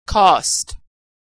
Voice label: verb "cost", pronunciation